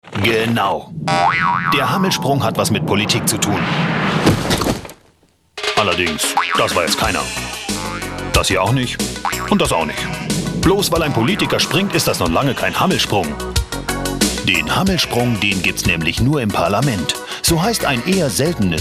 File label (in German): Kommentar witzig